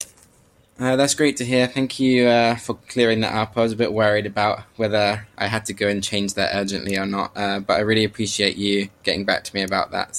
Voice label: testimonial message